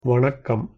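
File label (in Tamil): "வணக்கம்", Tamil pronunciation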